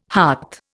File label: "hart", Indian